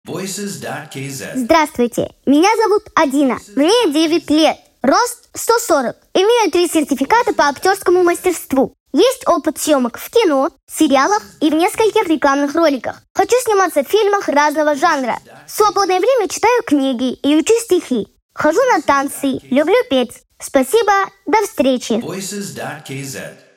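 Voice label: kid Russian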